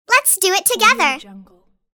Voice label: cartoon saying lets do it together; applehillstudios